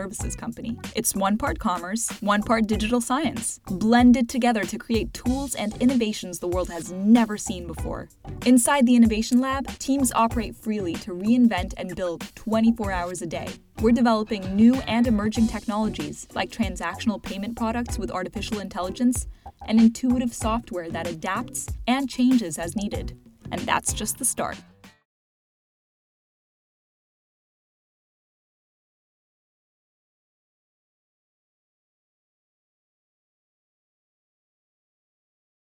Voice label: American Corporate